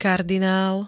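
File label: "kardinál", Slovak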